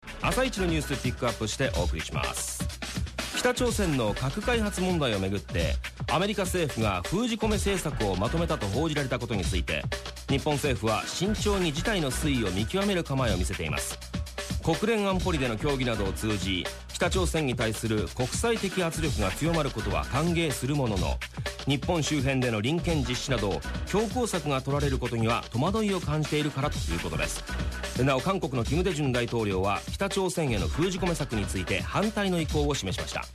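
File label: news Japanese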